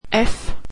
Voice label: "f", swedish alphabet

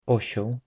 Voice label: "donkey", polish

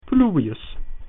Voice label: "rainy", Latin

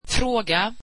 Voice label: swedish question

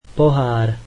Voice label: slovak cup

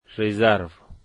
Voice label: "reservation" Dari

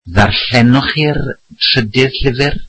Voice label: welsh did you read the third book

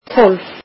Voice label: "twelve", Icelandic

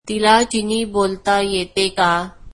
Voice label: marathi does she speak Chinese

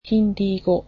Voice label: Hindi, japanese

